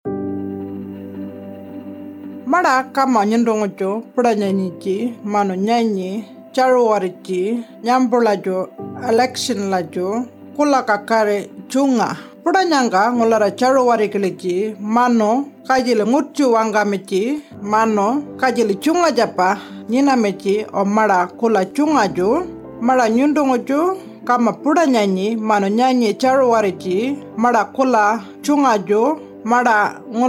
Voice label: Warlpiri, Radio mix; indigenous; AEC Misinfo